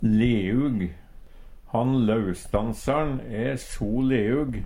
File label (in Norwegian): "leug", Numedalsmål; Motsette av stiv.; mjuk